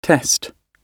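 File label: "test", British English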